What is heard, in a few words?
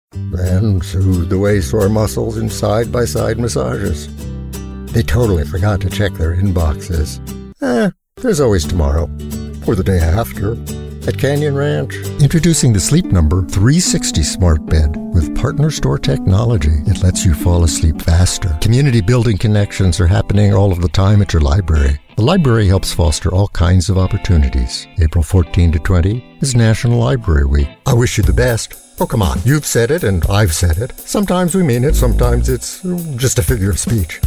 Commercial